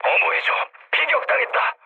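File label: Korean aircraft damaged mood low; War Thunder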